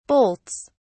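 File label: "bolts", British English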